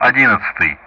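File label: Speech Russian; DCS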